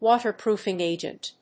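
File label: "WATERPROOFING AGENT", English; 防水剤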